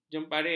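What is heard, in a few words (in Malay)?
"jemparing", Malay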